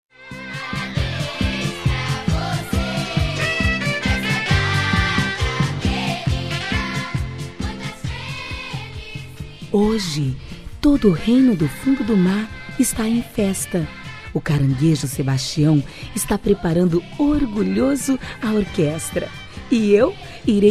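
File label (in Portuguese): Aniversário de Menina, female; Pequena Sereia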